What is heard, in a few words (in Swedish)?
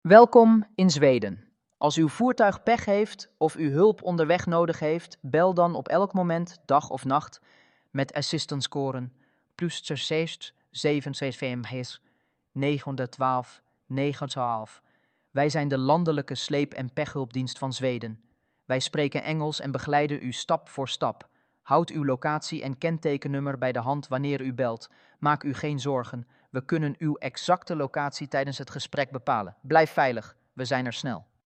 nederlandsk rost